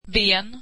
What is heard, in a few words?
swedish leg